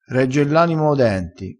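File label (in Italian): "regge l anima", vocabolario dialettale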